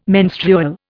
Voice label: "menstrual", Indian